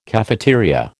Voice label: "cafeteria", American